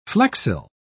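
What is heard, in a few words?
"flexile", British English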